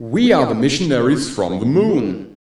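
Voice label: vocal male, Dance Vocals, spoken words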